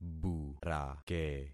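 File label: "burake", concatenated syllables; Affixing experiment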